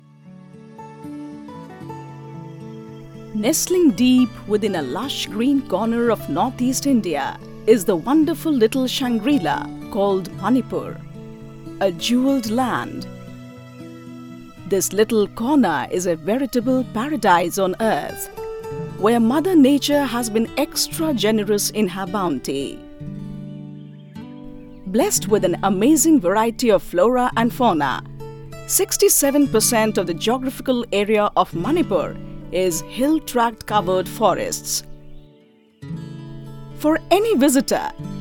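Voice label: documentary ENGLISH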